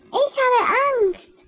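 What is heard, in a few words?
"I am scared", German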